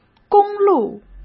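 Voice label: "公路", Mandarin; chinese flashcards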